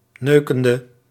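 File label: "neukende", Dutch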